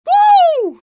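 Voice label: scout taunt flip fun, male voice; taunts; tf2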